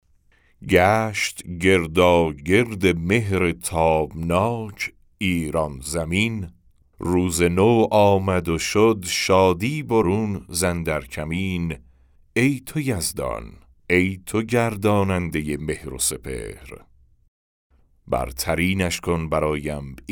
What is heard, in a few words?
Narration; nowruz